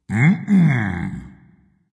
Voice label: disagree dragon; fafnir